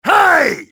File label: hey, english male; XBOX; enemy